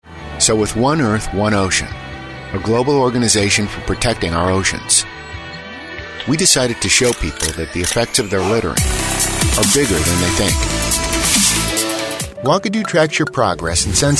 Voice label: Narration Corporate Branding